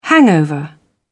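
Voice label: "hangover", British English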